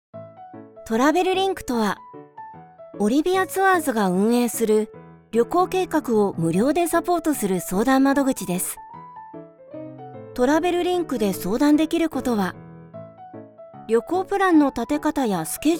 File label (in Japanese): フレンドリー 旅行サービス紹介; VOICE SAMPLE